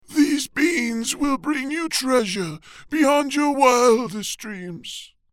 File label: Old Man